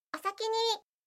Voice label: anime, Anime Character Farewell Voices! "Sayonara" Phrases; anime characters say goodbye